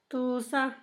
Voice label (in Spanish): yo solo, Zapoteco; Pronombres; San Pedro Mártir; INALI